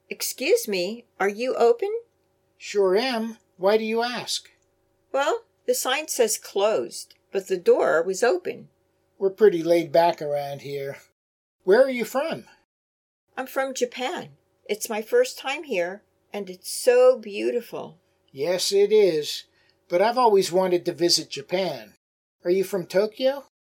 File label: Natural Dialog Complete